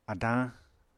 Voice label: "adañ", apatani